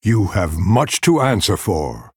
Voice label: Male Night Elf Start